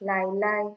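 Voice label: "lie lie", Nigerian Pidgin, female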